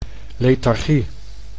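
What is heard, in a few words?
"lethargie", Dutch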